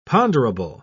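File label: "ponderable", British English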